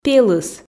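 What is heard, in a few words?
"пелыс", Komi, female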